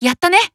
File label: Emo Praise